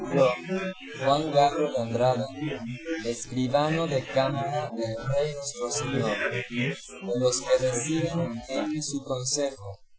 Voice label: male inst sim; SASSEC07